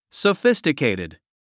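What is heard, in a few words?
"sophisticated", English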